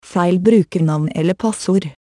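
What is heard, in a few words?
Username Or Password Incorrect, Norwegian; OpenCore; AXEFIAudio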